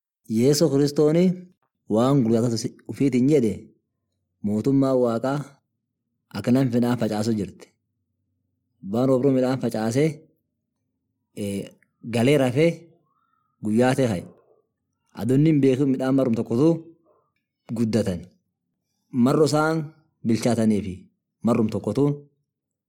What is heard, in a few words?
Oromo Borana; Sanyii danssa; Harvesting of Souls